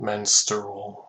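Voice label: "menstrual", American English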